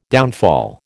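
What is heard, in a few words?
"downfall", American English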